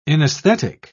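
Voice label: "inaesthetic", British English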